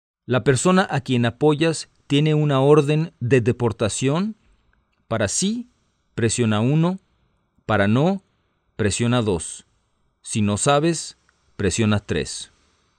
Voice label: Spanish, hotline